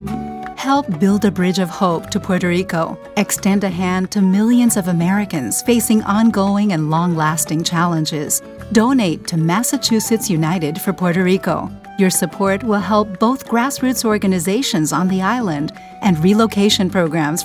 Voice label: English PSA; Massachusetts United for Puerto Rico